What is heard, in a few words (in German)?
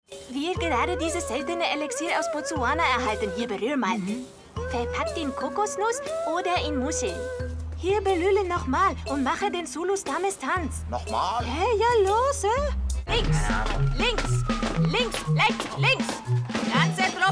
Synchron Akzent